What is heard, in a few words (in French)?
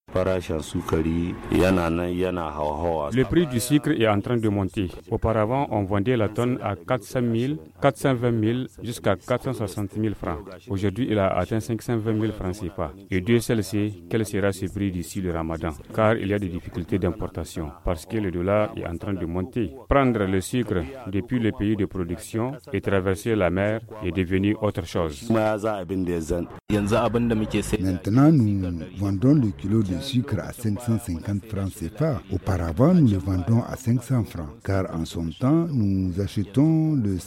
VOX POP; FR; HAUSSE PRIX SUCRE TAHOUA